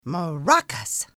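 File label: English MARACAS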